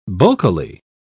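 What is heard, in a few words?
"bulkily", British English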